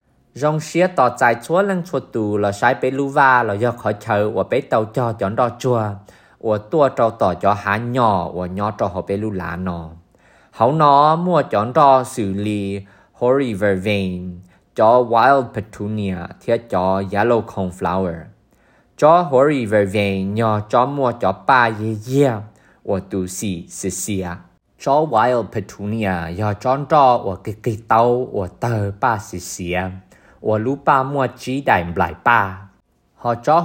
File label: Summer Prairieview Hmong